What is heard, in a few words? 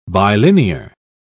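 "bilinear", English, uk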